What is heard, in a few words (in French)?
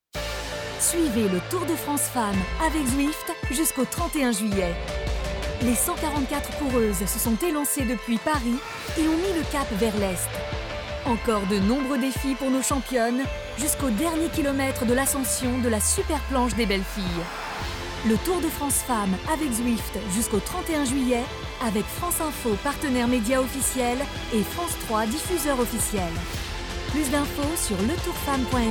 Voix Off, Voix pub radio; Tour de France Féminin 2022